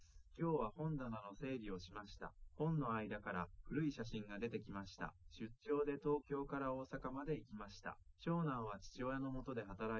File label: male liverec 130ms 1m sim